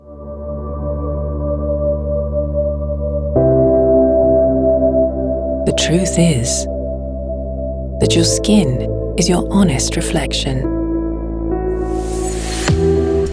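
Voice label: British English, female, slow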